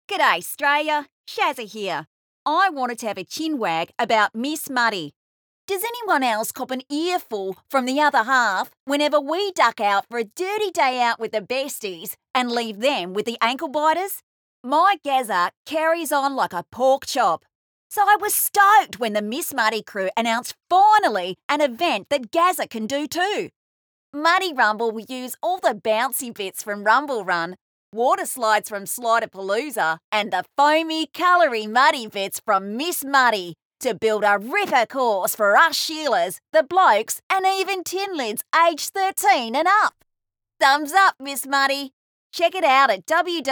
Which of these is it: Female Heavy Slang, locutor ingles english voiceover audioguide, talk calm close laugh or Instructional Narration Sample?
Female Heavy Slang